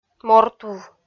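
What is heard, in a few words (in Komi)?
"мортув", Komi, female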